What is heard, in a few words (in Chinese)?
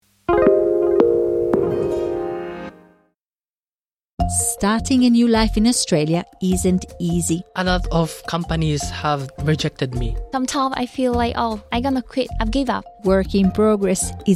cantonese; 【簡單快煮】醬燒豚肉飯配麻藥溏心蛋; 好煮意; SBS Chinese